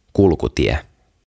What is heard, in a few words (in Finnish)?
"Kulkutie" "Path"